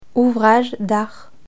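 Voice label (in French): "ouvrage d'art", French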